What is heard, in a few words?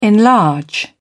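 "enlarge", British English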